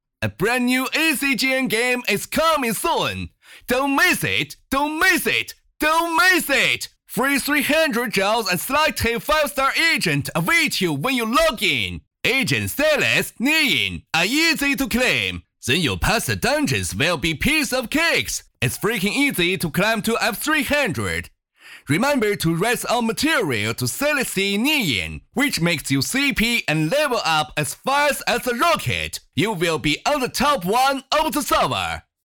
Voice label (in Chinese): 福利口播; 双生